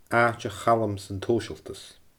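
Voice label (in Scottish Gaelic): "aaite Chaluim san t sooisealtas", Scottish Gaelic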